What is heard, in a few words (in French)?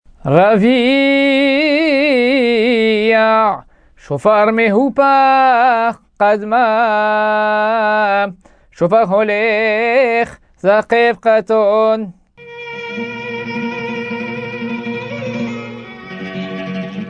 apprentissage taamim selon le rite constantinois